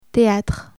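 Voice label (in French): "theatre", French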